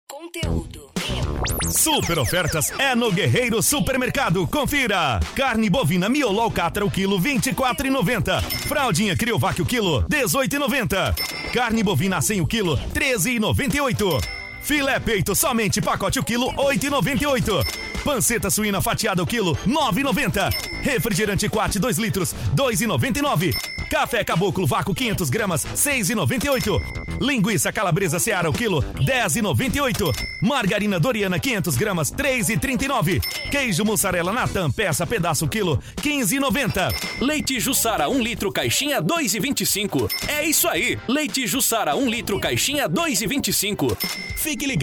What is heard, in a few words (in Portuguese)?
chamada volante varejo